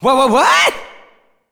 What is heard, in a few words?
"Wha-Wha-What!" (Echo), announcer; gameplay failed